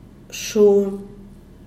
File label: "shun", ocho; Díaz Ordaz